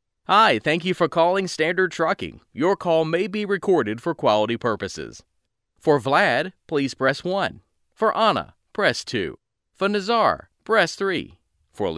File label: IVR